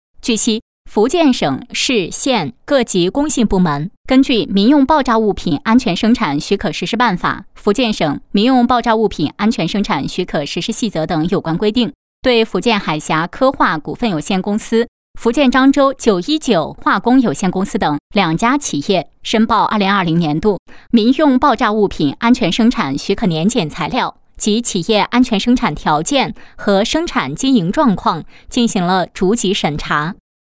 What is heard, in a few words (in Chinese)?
新闻; 民爆物品安全生产